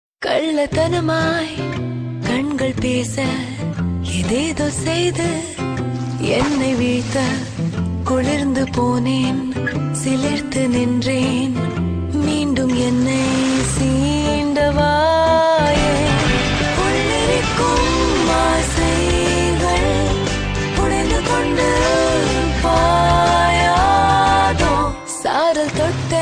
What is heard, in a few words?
Limca Tamil Ad